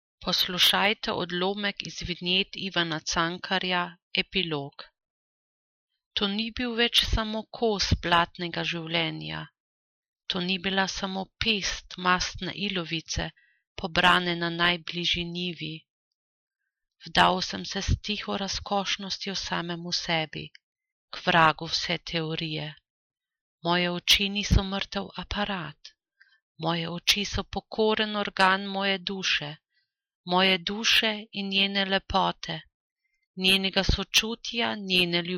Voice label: slowenisch

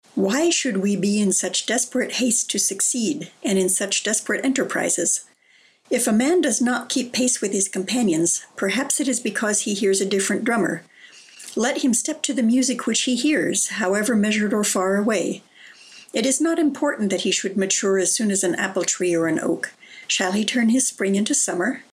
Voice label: englisch us

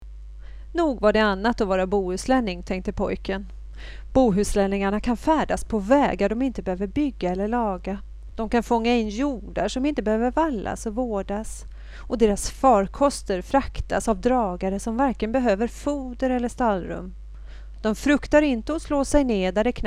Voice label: schwedisch

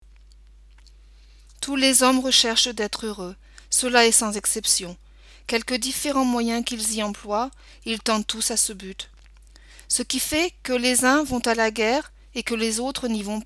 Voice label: franzoesisch

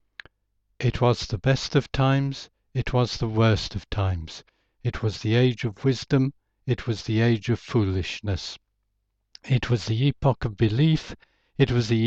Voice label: englisch uk

